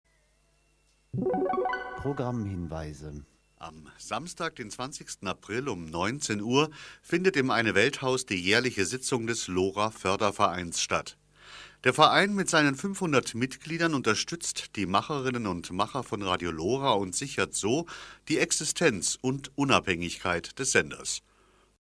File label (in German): Programmhinweise; Attac; LoRa